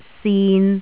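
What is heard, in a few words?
"sin3", Cantonese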